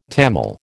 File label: "Tamil", American